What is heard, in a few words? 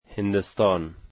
India, Dari